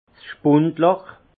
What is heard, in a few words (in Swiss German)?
"spundloch", alsace; trou de bonde